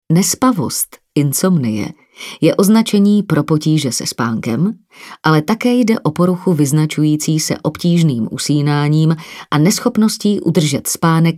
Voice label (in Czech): ukazka audio kniha